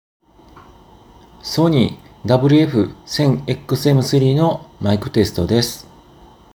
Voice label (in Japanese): SONY WF 1000XM3 マイクテスト